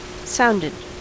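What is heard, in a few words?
"sounded", US English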